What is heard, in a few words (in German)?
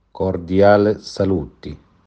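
"Cordiali saluti", italienisch; Mit freundlichen Gruen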